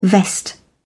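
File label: "vest", British English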